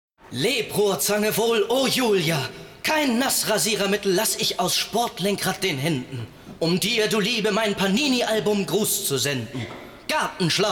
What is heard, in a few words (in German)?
werbung szene; ebay